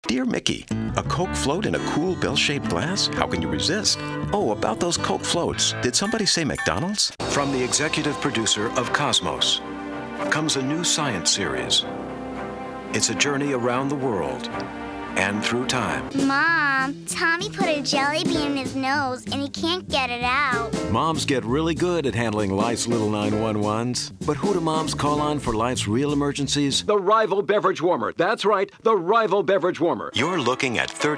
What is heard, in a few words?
Commercial